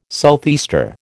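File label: "southeaster", American English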